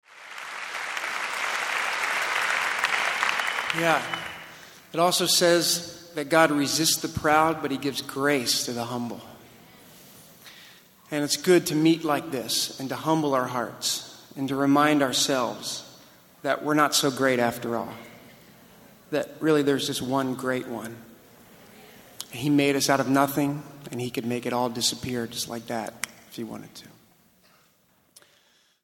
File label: monologue